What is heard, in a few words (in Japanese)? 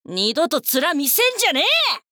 「二度と面見せんじゃねぇ！」, 女の子 オラオラ系少女 戦闘ボイス